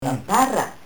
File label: "in tarra", lingua